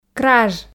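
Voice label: "краж", Komi, female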